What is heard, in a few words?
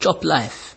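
"chop life", Nigerian Pidgin, male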